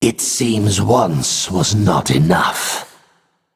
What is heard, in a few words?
Male Naga